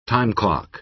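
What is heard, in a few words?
"time clock", American English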